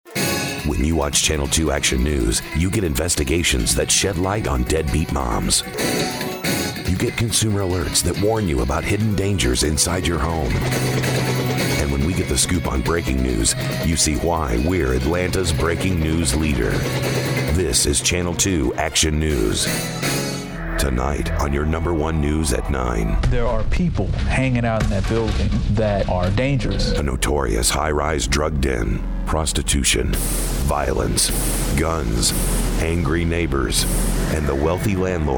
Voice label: men tv affiliate

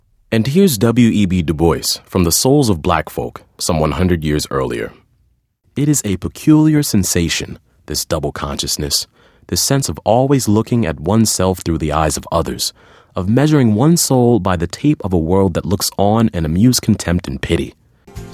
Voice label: men narration

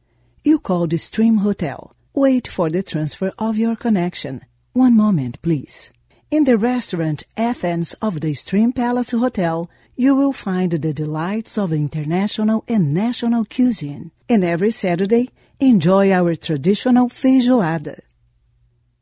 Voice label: portuguese brazilian accented english

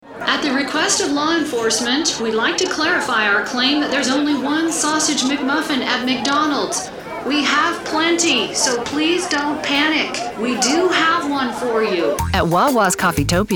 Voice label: women other retail

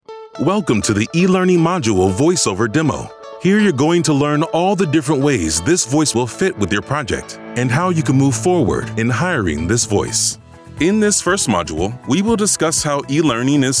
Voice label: men elearning

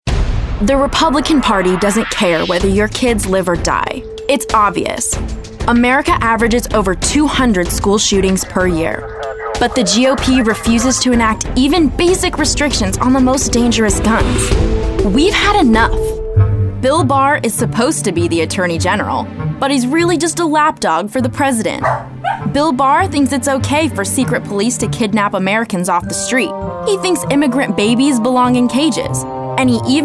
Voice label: women political

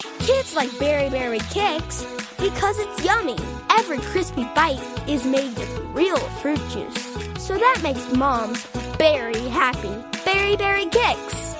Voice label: kids commercial

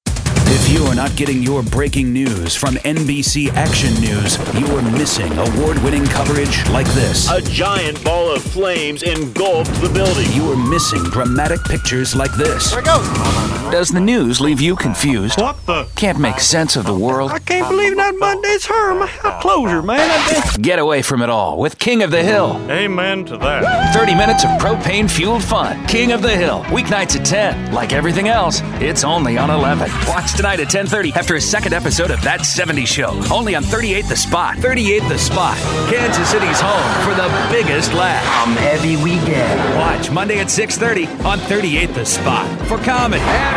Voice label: men tv affiliate

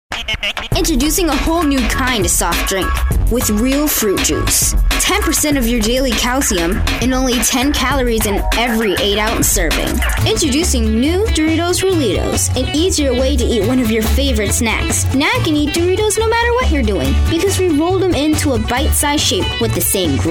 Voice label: kids commercial

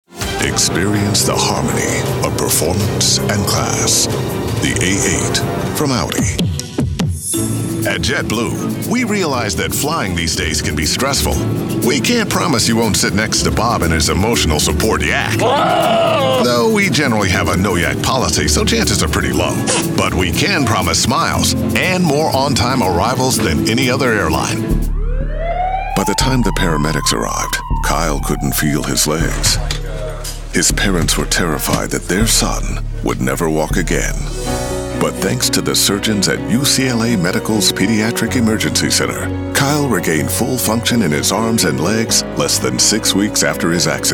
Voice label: men commercial

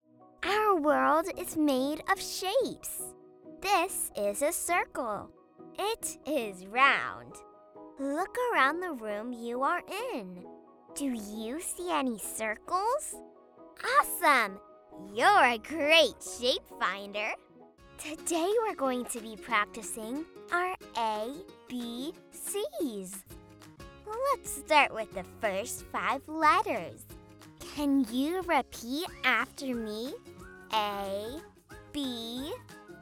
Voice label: kids elearning

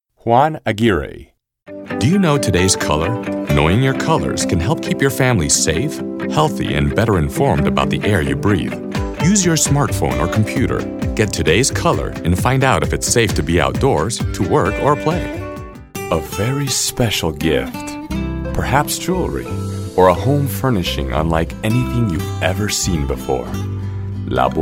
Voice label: spanish accented english

